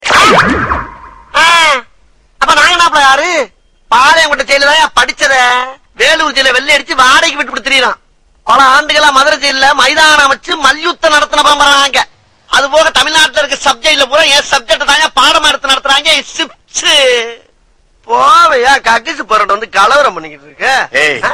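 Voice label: Dialogue Ringtone; Vadivel Jail; Tamil Ringtones; 2009 Tamil Hit Ringtones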